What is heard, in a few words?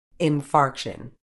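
"infarction", British English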